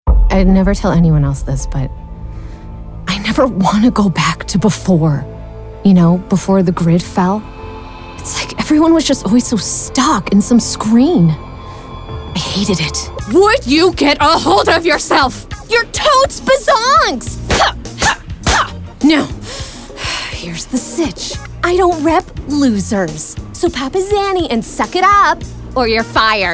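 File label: voice over character; global voice acting academy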